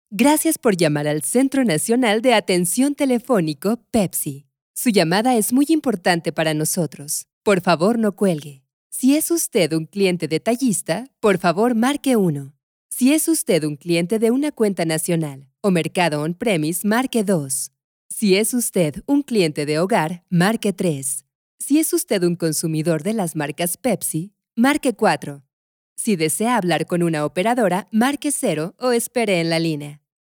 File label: WELCOME MESSAGE IVR